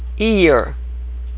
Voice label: "ear", pronunciation

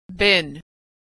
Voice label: verb "been", pronunciation

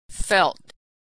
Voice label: verb "felt", pronunciation

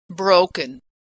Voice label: verb "broken", pronunciation

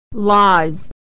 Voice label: verb "lies", pronunciation

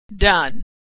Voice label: verb "done", pronunciation

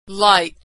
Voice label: verb "light", pronunciation

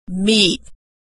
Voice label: verb "meet", pronunciation

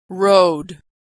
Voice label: verb "rode", pronunciation

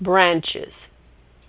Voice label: "branches", pronunciation

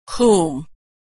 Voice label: "whom", pronunciation

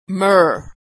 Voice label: "myrrh", pronunciation

